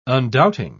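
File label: "undoubting", British English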